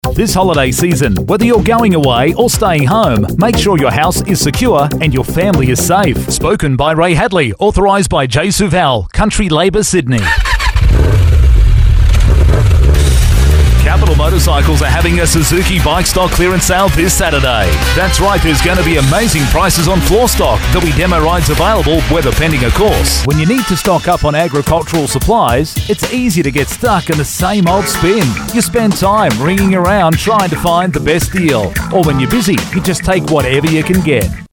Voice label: male talent retail